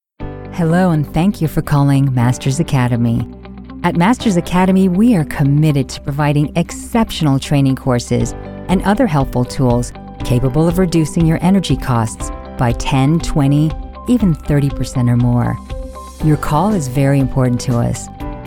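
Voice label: English US, corporate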